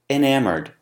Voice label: "enamored", English